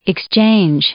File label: "exchange", noun, English